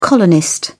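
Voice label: "colonist", British English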